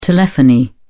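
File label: "telephony", British English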